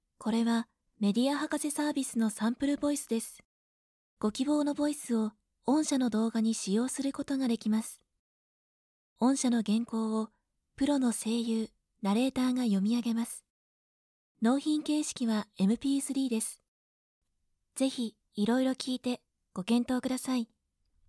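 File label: whisper, narration sample voice women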